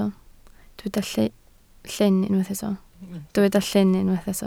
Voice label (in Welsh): "llyn" Welsh